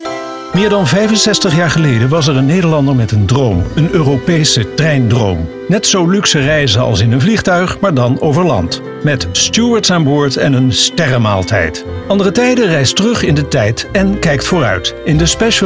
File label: promo; NTR; Europese Treindroom